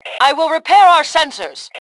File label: Self Repair Sensors, Female; T2 Female 02